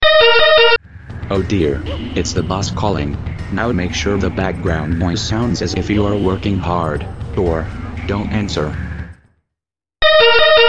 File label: Boss Calling Male